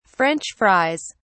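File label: "french fries", British English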